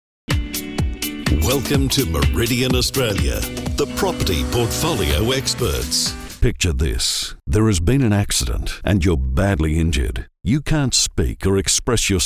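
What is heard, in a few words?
Corporate, voiceover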